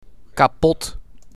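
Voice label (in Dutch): "Kapot", Dutch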